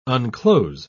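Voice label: "unclose", British English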